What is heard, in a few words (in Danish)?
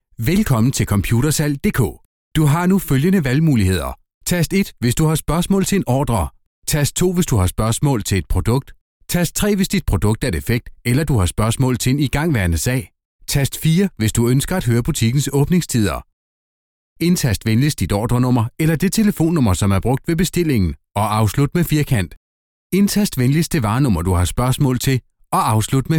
Telefonsvarer; voice-over demo